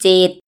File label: seven, Thai; thai numbers